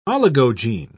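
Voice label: "oligogene", British English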